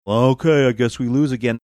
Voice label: saying okay i guess we lose again funny

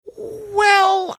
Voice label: drawn out well

